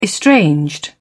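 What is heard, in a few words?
"estranged", British English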